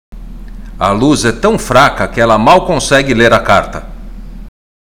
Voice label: Portuguese Listening; Portuguese Test